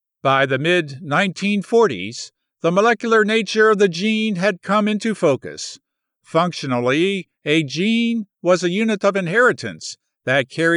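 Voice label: Audiobooks Non-Fiction 3rd-person Science explanatory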